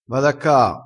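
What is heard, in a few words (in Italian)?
"caa", dialettale crespinese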